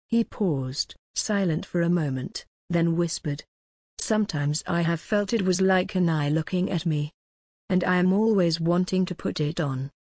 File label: audiobook sample